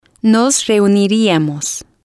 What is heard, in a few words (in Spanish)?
nos reuniríamos, Spanish